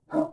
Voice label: Gasmask Fort Vox; Beyond the Sword; Units

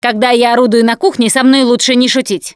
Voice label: take frying pan, russian, Survivor Voice Producer

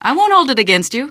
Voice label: answer, female; Garry's Mod; hl2

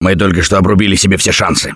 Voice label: Double Death Response, russian, Survivor Voice; Gambler

